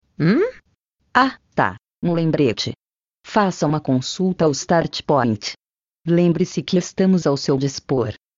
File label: lembrete female